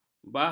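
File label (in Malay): "bahas", Malay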